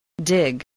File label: "dig", english spelling